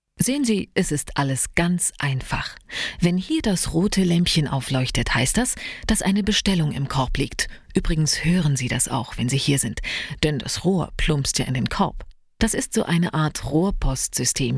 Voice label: kommentar; LOFT VOICES

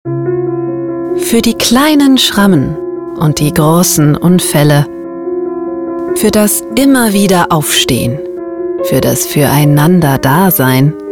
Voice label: Werbung Heilsalbe; LOFT VOICES

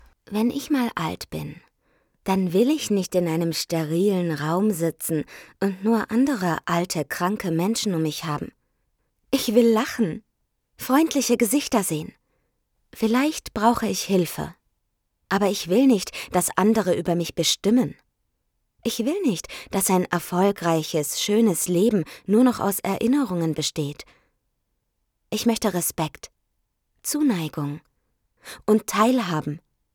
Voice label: ruhig innerer monolog altwerden

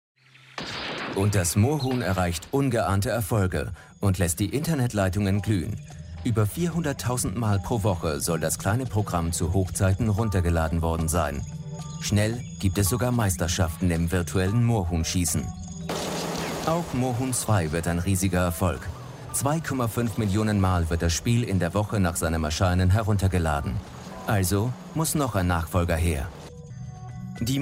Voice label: Kommentar; GermanGames

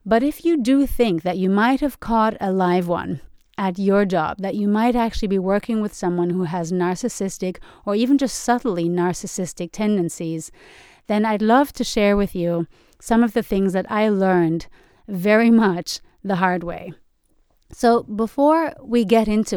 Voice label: Auszug Podcast

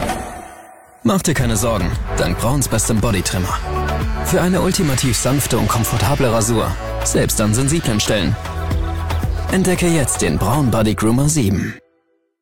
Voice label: Werbung, Commercial, German; Braun

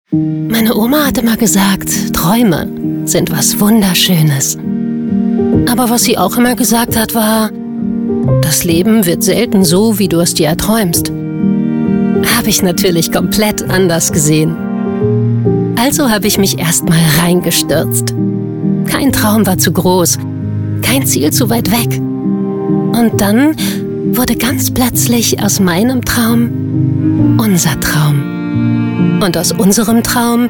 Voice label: Imagefilm emotional

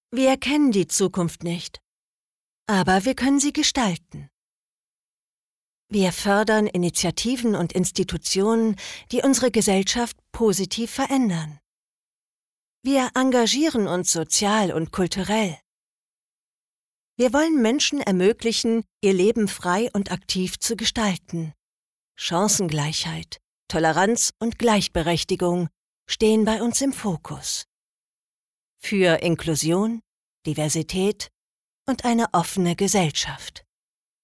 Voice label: Imagefilm

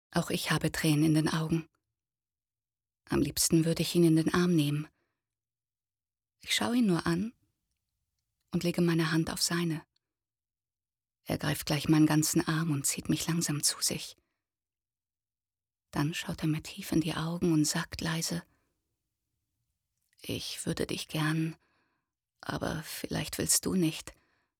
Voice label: Hoerbuch Romance; LOFT VOICES

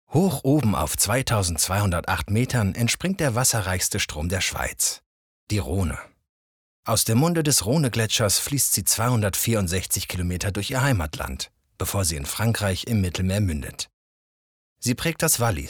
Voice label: Doku

